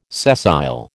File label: "sessile", American